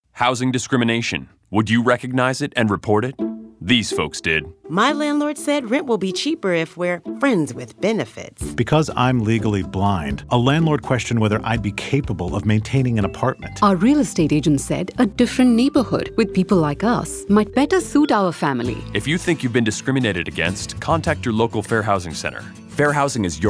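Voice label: Radio English; NFHA-HUD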